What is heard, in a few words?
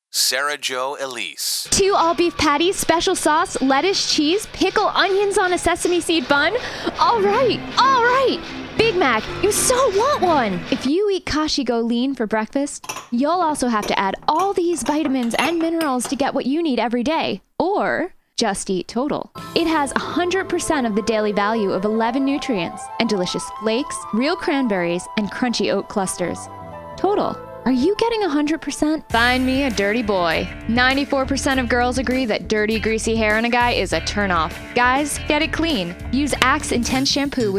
Commercial